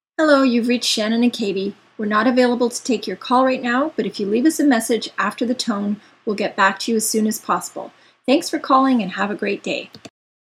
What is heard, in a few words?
Voice Mail